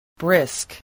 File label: "brisk", spelling